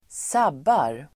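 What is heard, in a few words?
"sabbar", Swedish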